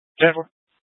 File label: Scottsdale Police, Simulcast